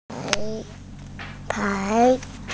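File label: "tai2", Mandarin Chinese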